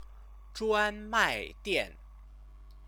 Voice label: "zhuanmaidian", Chinese

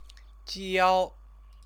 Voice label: "jiao", Chinese

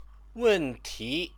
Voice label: "wenti", Chinese; 问 题; question, problem; noun

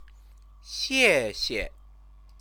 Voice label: "xiexie", Chinese; 谢 谢; thank; verb

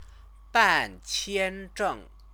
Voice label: "banqianzheng", Chinese; 办 签 证; get a visa; verb-object; Chinese lessons

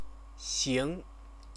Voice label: "xing", Chinese

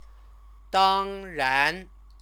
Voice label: "dangran", Chinese

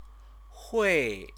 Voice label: "hui", Chinese; 会; meeting; noun; Chinese lessons

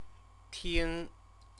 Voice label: "ting", Chinese; CTCFL; University of Oxford

